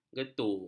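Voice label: "getu", Malay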